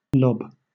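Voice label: "lob", British English; pronunciations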